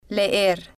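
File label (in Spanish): "leer", Spanish, female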